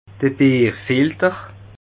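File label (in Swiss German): "bierfilter", Alsatian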